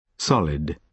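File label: "solid", British English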